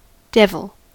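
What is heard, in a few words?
"devil", US English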